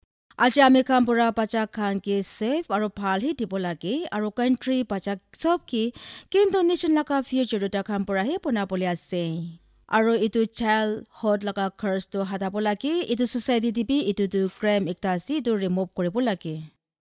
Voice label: Female